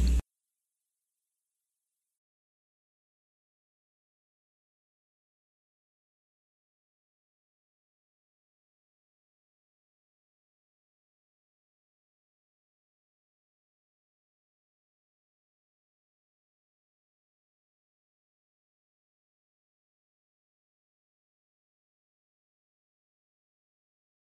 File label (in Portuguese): Amizade Neutra Feminino, Amizade Neutra Masculino